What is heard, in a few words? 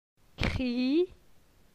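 "Cri", French; phonetique; la syllabe i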